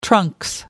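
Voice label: "trunks", British English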